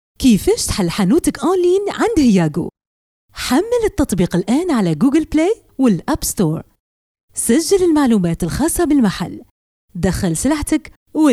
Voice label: Algerian